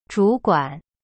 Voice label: "主管" zhu guan, chinese